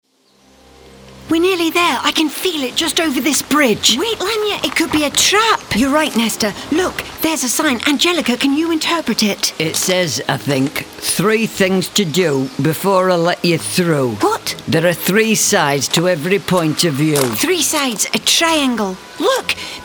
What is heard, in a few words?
ANIMATION